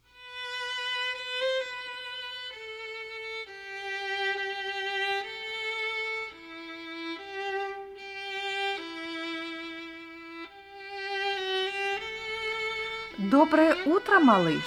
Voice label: Russian